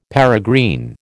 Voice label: "peregrine", American

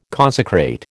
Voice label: "consecrate", American

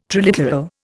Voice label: "triliteral", Indian

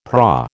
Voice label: "prau", American

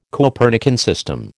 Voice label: "Copernican system", American

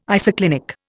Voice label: "isoclinic", Indian

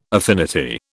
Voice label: "affinity", British

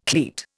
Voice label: "cleat", Indian

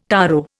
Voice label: "taro", Indian

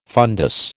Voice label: "fundus", American

